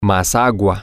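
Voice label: more water, Spanish, male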